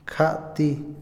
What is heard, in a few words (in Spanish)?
"bajo", Otomí, hombre; INALI